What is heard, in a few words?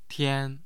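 "tian1", Mandarin Chinese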